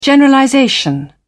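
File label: "generalization", British English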